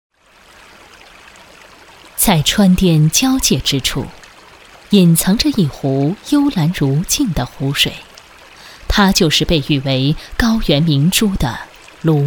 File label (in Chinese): 女国 纪录片 泸沽湖畔的走婚生活